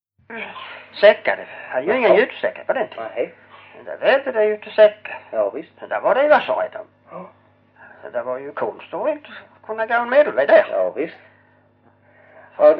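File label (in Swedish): Hörja Skåne, dialekter; Folkminnen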